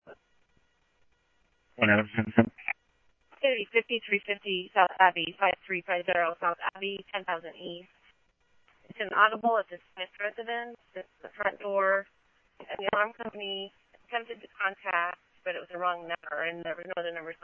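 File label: Simulcast police department, scanner audio trunk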